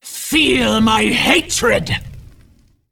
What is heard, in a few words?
Female Dragon Attack; Sinestra